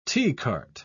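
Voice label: "teacart", British English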